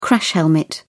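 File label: "crash helmet", English, British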